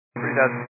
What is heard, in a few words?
122 725MHz, scanner radio